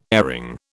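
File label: "airing", British English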